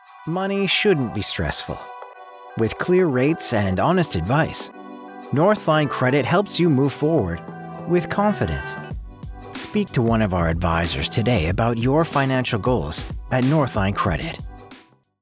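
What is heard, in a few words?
WITH MUSIC; NORTHLINE CREDIT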